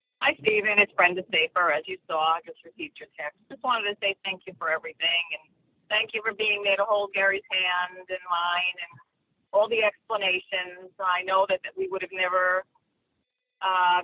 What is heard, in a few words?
Voicemail